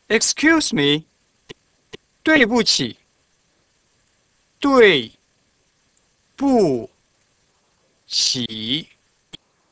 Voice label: Mandarin Excuse; ドイブチィ; すみません; 標準中国語